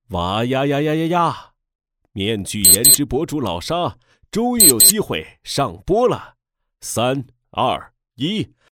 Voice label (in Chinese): 男 角色模仿; 年轻气质 幽默搞怪